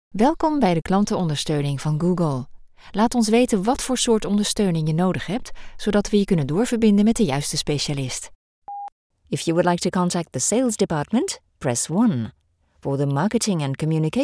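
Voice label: Multilingual ON HOLD English French Dutch Flemish German Studio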